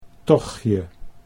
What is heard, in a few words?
"tochtje", Dutch